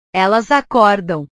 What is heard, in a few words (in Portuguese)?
"elas acordam", portugues